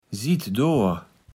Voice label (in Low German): "sittdor", Platt